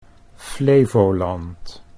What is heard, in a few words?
"Flevoland", Dutch